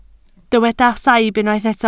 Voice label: "saib", Welsh